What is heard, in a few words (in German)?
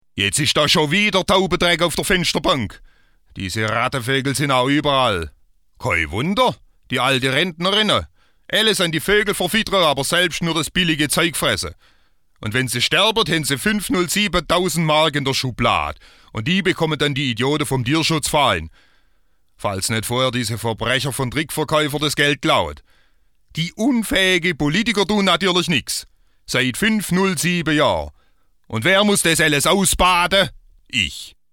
Dialekt Schwaebisch